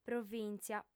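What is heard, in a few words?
"provìntzia", Sardinian, female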